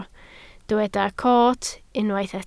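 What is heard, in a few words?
"coot" Welsh, spoken word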